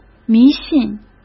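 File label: "迷信", Mandarin Chinese; chinese-flashcards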